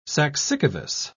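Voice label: "saxicavous", English, British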